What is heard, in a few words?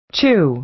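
"chew", American English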